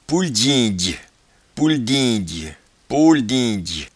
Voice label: "pouledyindye", patois jura

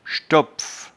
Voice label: "schtopf", patois jura

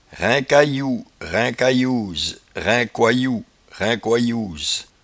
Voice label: "raincayou", patois jura

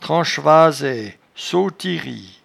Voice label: "tranchevasaie", patois jura

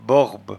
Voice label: "borbe", patois jura

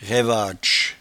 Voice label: "raivatche", patois jura

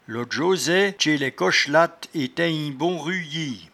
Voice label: "lodjodet", patois jura

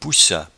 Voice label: "poussatpoussiere", patois jura

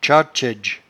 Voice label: "tchatchaidge", patois jura

